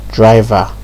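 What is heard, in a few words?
"driver", Nigerian Pidgin, male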